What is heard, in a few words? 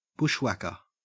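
"bushwhacker", Australian English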